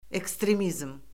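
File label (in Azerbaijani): "ekstremizm", Azerbaijani; Orfoqrafiya Lüğəti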